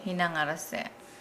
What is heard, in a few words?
Nukuoro; sad